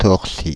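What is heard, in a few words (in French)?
"Paris Torcy", French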